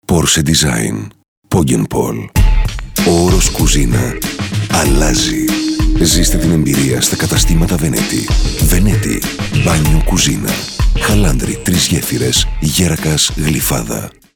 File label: radio spot; veneti